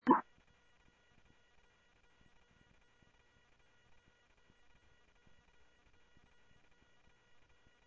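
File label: Lakes Sheriff Lake Patrol, scanner audio trunk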